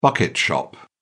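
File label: "bucket shop", English, British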